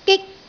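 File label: "gik1", Cantonese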